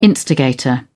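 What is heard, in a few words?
"instigator", British English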